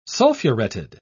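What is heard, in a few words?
"sulfureted", British English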